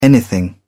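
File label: "anything", British English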